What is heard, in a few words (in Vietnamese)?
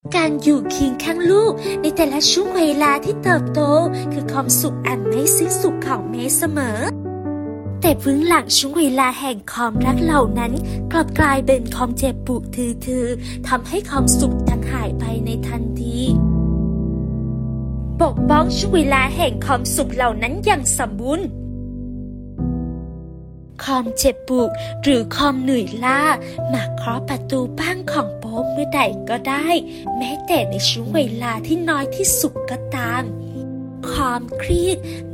Lồng tiếng Video Tiếng Thái; Sua DuLyn Sure